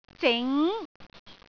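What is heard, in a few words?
"zing2", Cantonese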